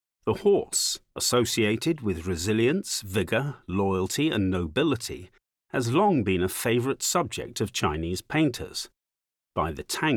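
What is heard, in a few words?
English, audio guide; Exhibition introduction; Heavenly Horse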